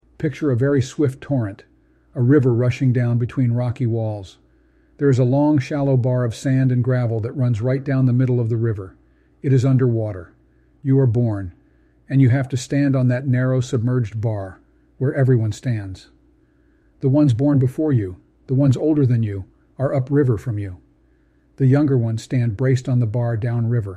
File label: synthesized audio